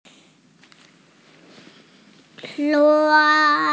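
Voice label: "suan1", Mandarin Chinese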